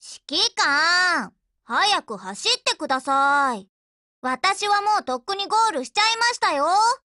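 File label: HELLO, Japanese; GF Alarm; BrowningHP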